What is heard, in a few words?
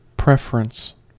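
"preference", US English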